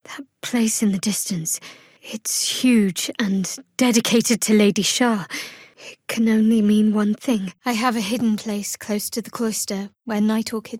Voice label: female; shadowheart